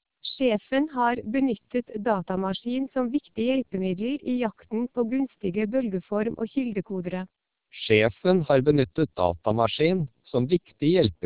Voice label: Norwegian; TWELP DMR; vocoder

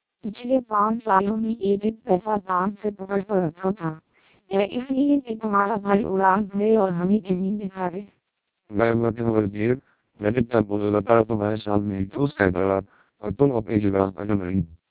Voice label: twelp 300 hi short, vocoders lowrate; Hindi

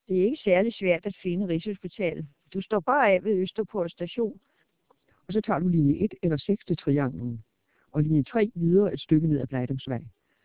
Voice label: Danish; TWELP DMR; vocoder

